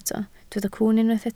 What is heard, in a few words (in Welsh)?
"cwwn", Welsh